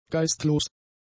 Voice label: "geistlos", German; adjectives